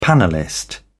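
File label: "panellist", British English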